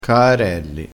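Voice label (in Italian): "caarelli", vocabolario dialettale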